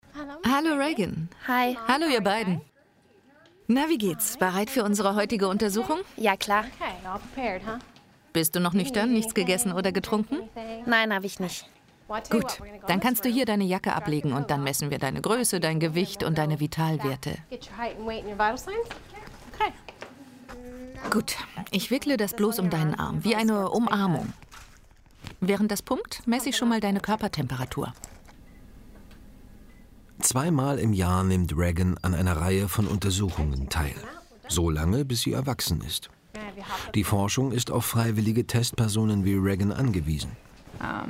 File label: Voice Over Kind; 3Sat